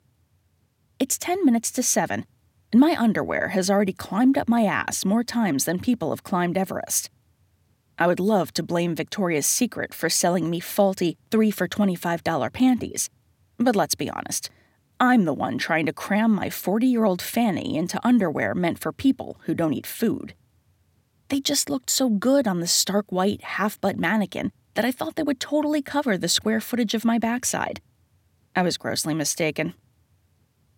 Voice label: sarcastic